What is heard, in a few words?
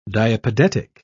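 "diapedetic", British English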